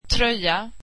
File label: sweater, swedish